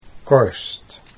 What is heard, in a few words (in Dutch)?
"korst", Dutch